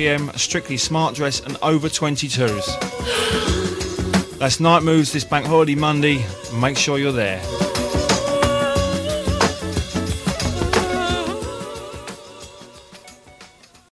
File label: Nightclub Advert; Night Moves; Horizon Radio Adverts 1981 to 1985